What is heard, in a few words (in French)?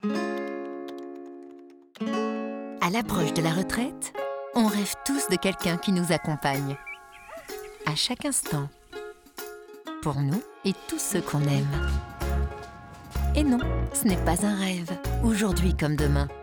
complice douce; Apreva Senior Assurance